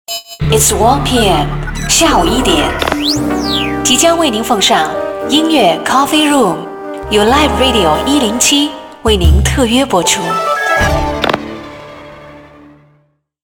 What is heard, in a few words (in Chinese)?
音乐广播; 济宁音乐广播